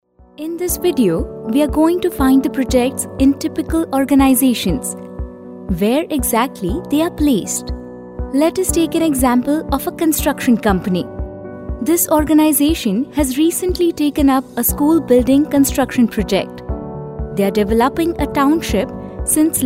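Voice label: Marathi India female voice over, English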